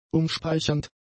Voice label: "umspeichernd", German; adjectives